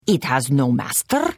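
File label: Hello, Khajiit female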